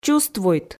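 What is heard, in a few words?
"чувствуйт", Komi, female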